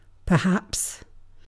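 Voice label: "perhaps", British English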